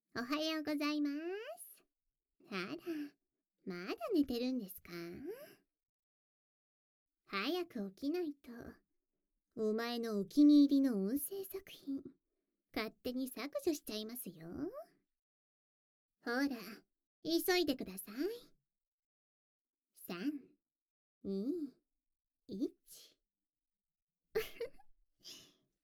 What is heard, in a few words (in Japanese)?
アラーム音声(甘々意地悪); 朝も一緒♪アラーム音声